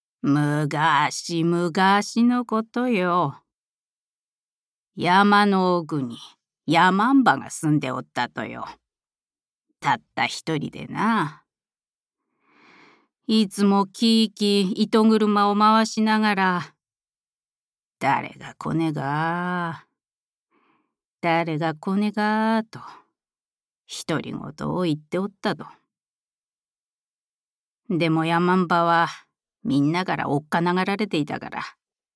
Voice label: おばあさん方言

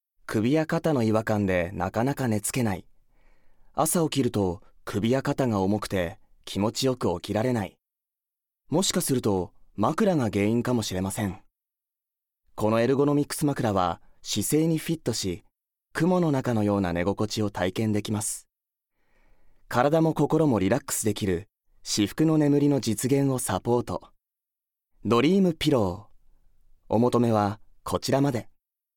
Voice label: ナレーション

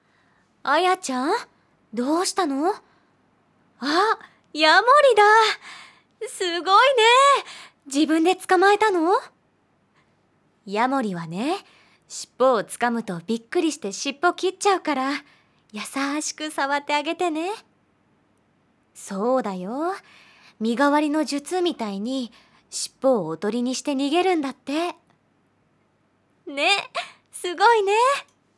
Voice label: アニメ 幼稚園の先生 27歳くらい

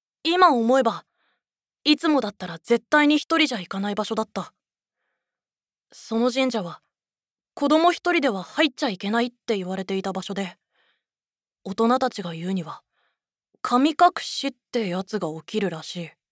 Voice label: 少年

